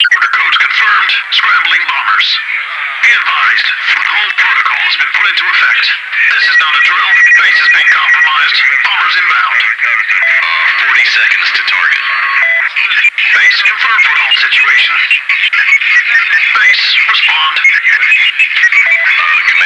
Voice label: radio chatter; xwire